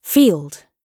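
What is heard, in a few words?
"field", British English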